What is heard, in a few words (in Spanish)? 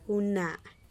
mujer